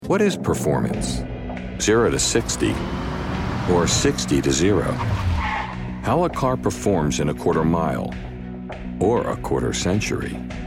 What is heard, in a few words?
commercial